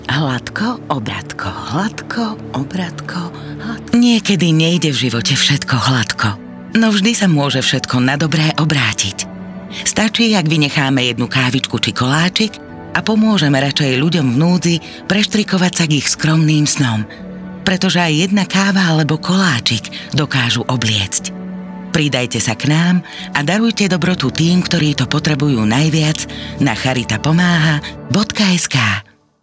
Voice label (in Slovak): rozhlas